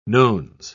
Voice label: "nones", en uk